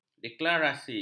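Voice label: "deklarasi", Malay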